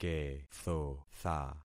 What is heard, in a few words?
"kethotha", concat syllables; Affixing experiment